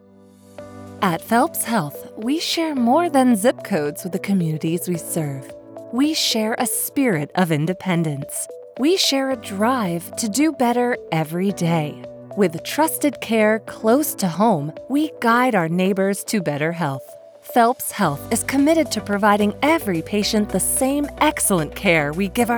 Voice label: Commercial Sample; Phelps Health